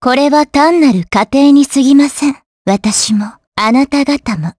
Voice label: Vox Victory, jp; Xerah; kingsraid